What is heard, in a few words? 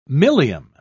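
"milium", British English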